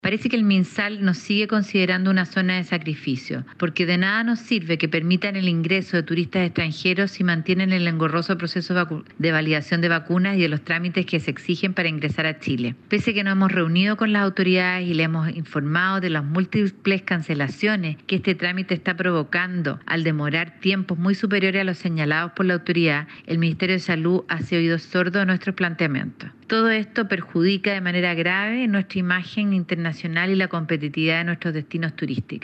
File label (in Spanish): CUÑA; FEDETUR